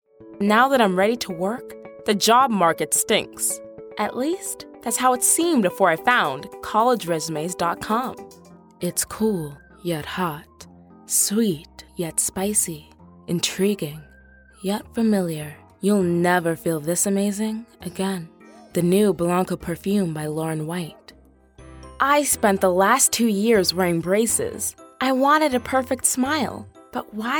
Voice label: commercial women